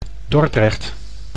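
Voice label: "Dordrecht", Dutch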